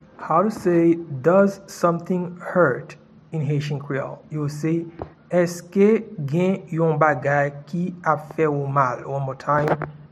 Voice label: Does something hurt in Haitian Creole Eske gen yon bagay ki ap fe ou mal